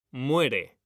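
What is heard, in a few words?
"muere", Spanish, male; mekanta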